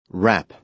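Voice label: "wrap", American English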